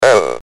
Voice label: "O", Speak & Spell UK

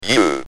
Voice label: "U", Speak & Spell UK Speech

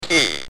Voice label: "T", Speak & Spell UK

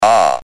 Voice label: "R", Speak & Spell UK

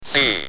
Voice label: "C", Speak & Spell UK

